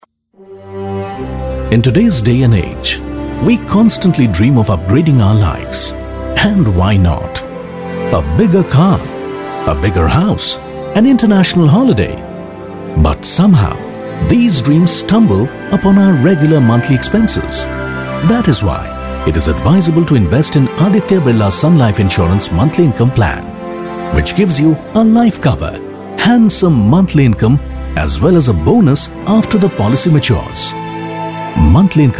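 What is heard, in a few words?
English voice over Sample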